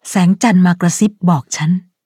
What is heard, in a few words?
level up, th; Cookie Run